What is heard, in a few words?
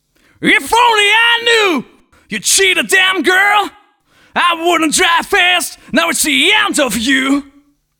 Speed Spoken Part, rock alternative; Cэмпл вокала; 120 BPM; Vocal; мужской вокал